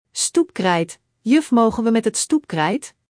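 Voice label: "stoepkrijt", Dutch; Spelling Gr6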